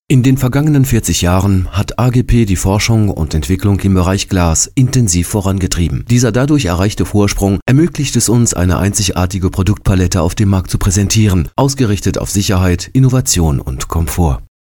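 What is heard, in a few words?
german male voice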